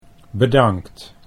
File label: "bedankt", Dutch